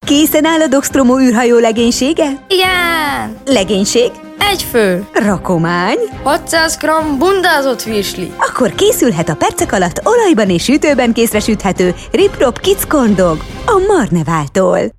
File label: spot; Marnevall Ripp Ropp Kids Corndog